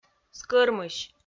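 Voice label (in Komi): "скӧрмысь", Komi-Zyrian, female; kpv audio dict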